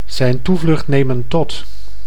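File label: "zijn toevlucht nemen tot", Dutch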